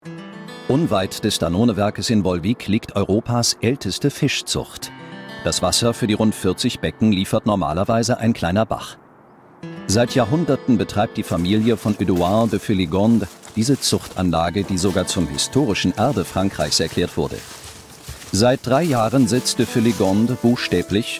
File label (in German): Doku Kommentar Trinkwasser